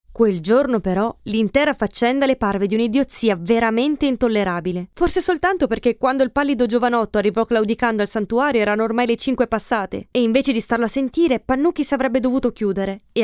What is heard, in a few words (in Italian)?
Italiano narrativa